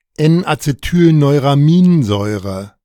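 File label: "N-Acetylneuraminsäure", German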